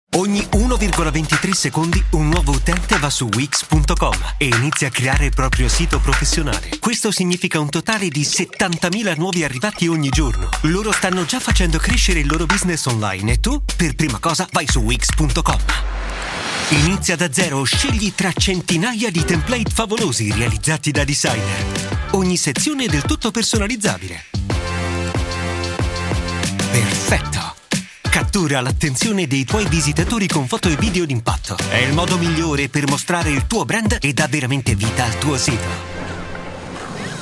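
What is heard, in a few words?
Italian male explainer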